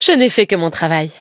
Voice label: levelup, fr; cookie run